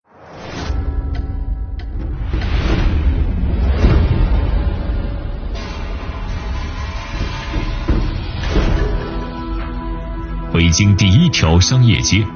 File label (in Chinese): 男, 专题汇报类; 平安北京东城公安金街卫士